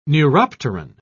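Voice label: "neuropteran", British English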